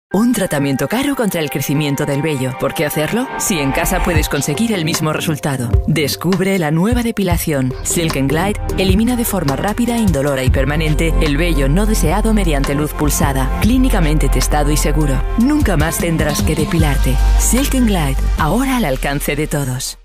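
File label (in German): Spanish, Freundlich; ESP; Fremdtake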